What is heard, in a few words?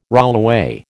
"rollaway", American